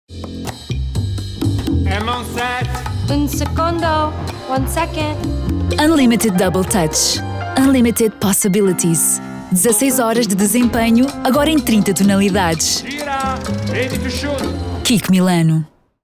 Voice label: Spot